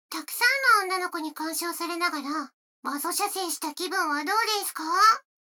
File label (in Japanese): 女の子 台詞, ASMR